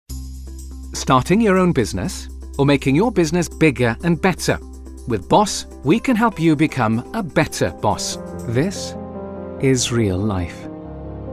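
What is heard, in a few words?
Welsh English, male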